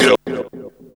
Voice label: VOX, Grunge Hip Hop; USB Soundscan vol.34; AKAI; TIMBA

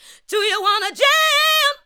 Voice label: ADLIBS 90; Ueberschall; Jam Box

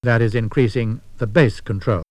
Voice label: Vox Bass Control, house rap vocals; X-Static Goldmine

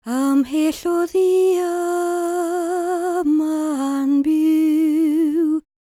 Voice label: WELSH F, World Voices; ILIO; Vocal Planet; WELSH FEM

